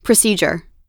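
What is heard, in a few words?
"procedure", US English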